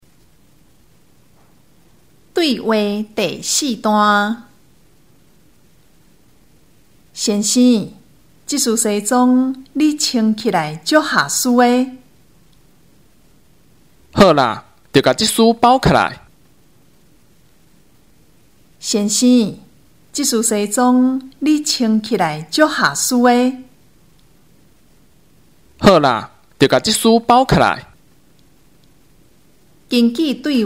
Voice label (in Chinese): 對話, 閩南語; 閩南語語言能力認證; 閩南語能力測驗題本